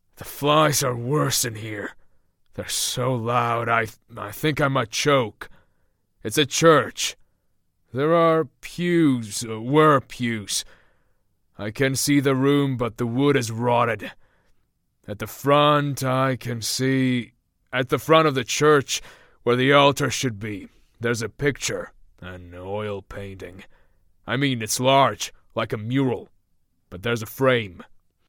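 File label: male voice, English accent, Georgian; voice demos; GoLocalise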